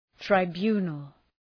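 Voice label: "tribunal", English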